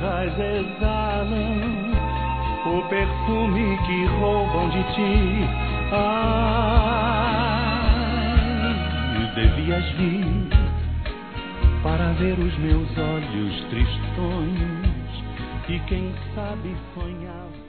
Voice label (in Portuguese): Aniversário Avô Infantil Masculino; Telemensagens 2017; Aniversários